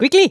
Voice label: "hurry", Speech; Worms Armageddon; The Raj